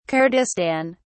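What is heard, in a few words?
"kurdistan", British English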